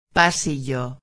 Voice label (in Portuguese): "pasillo", espanhol